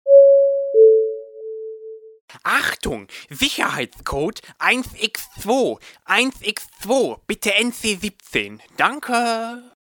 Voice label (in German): durchsage